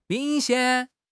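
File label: "ling3 xian1", Chinese; 汉语口语新时代-初级下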